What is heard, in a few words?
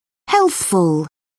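"healthful", British English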